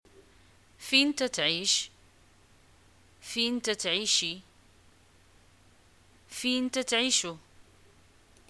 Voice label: where do u live, arabic, ma